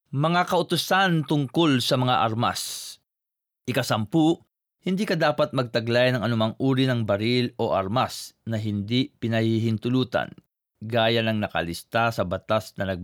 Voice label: orders about weapons filipino

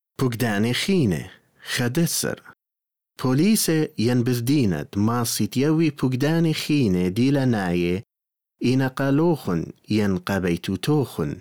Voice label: assyrian

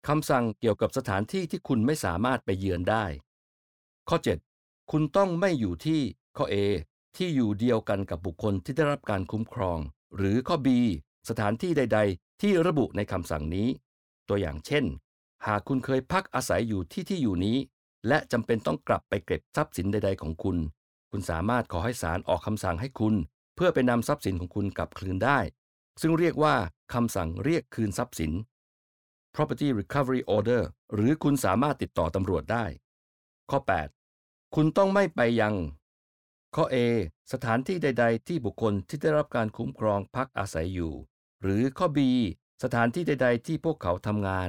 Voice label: thai; orders about where you cannot go

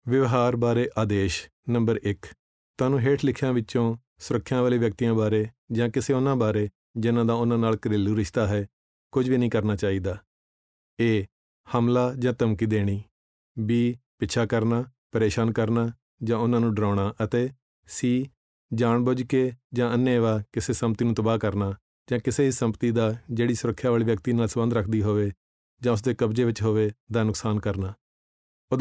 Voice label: punjabi; orders about behaviour

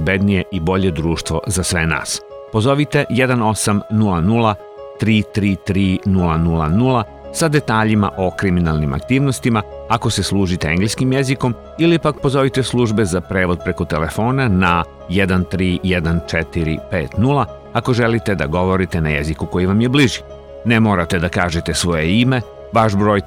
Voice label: Serbian Crime Stoppers; srpski jezik

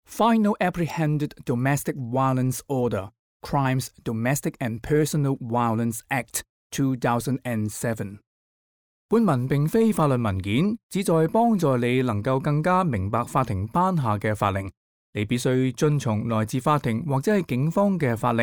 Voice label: cantonese; introduction

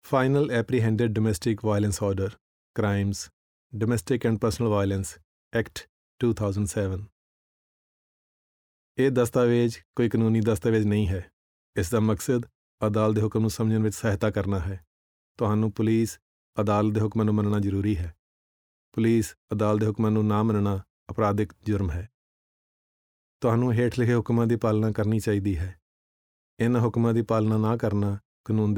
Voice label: punjabi; introduction

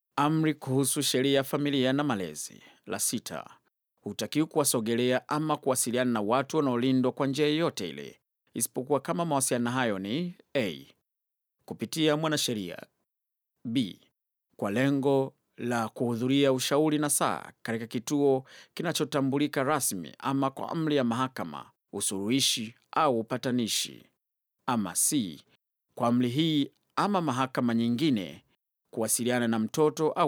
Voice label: orders about family law and parenting, swahili; apprehended domestic violence orders accessible guides

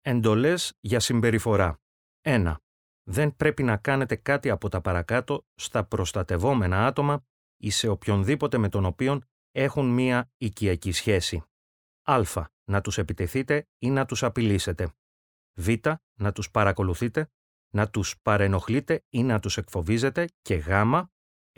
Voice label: orders about behaviour greek

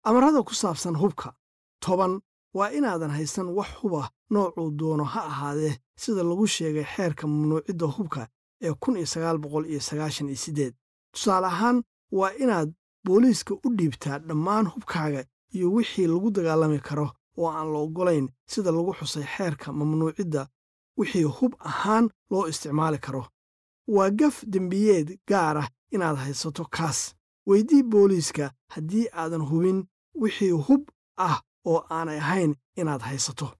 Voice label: orders about weapons somali

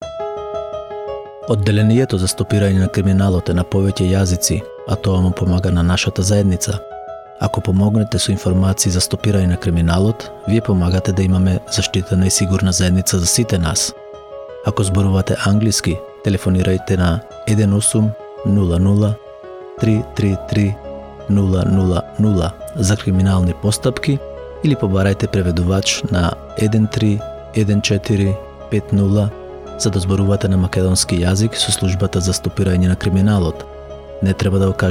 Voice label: Macedonian; Crime Stoppers

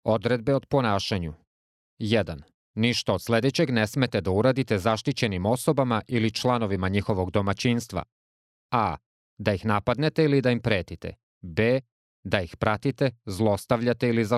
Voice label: serbian; apprehended domestic violence orders accessible guides; orders about behaviour

